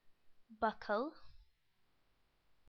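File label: "buckle", English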